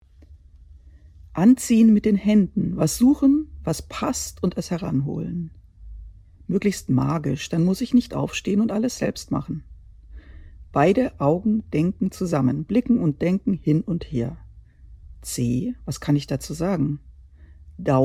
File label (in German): deutsch